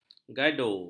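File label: "gado", Malay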